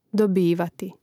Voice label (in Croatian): "dobivati", Hrvatski; Rastavljanje na slogove: do-bi-va-ti; mreznik